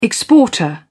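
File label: "exporter", British English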